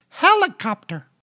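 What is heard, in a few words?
"helicopter", English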